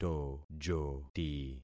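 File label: "tojoti", concatenated syllables; Affixing experiment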